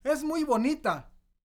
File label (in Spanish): MUY BONITA, MEXICAN, World Voices; ILIO; Vocal Planet VOL-5